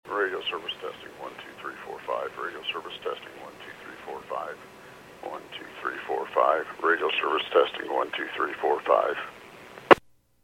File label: PAGING